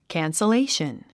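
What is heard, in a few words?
"cancellation", English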